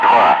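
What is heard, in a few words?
Russian speech, Ka-50 Wingman; Digits; DCS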